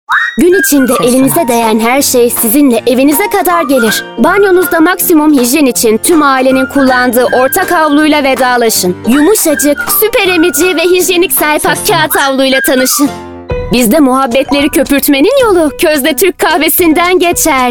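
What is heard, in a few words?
Turkish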